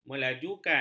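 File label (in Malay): "melajukan", Malay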